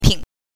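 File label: "ping", Mandarin Chinese, neutral tone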